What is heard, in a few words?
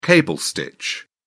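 "cable stitch", British English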